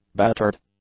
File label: "battered", English; adjectives